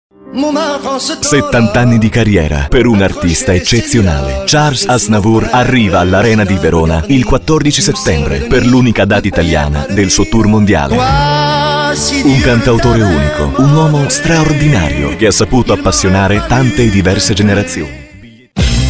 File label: commercials